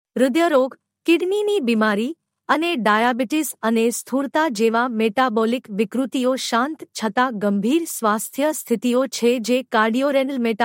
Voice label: video Female Gujarati